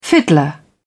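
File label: "fiddler", English, British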